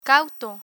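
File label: "cauto", Spanish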